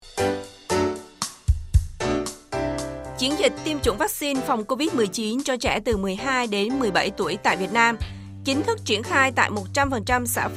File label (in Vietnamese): THONG DIEP GIONG MIEN NAM; CHIEN DICH TIEM VAC XIN CHO TRE 12-17 TUOI